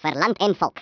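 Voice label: "Kamikaze", Afrikaans accent; Speech; International; Africaan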